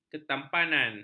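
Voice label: "ketampanan", Malay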